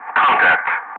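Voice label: "contact", English, Wingman; Ka-50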